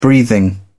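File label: "breathing", British English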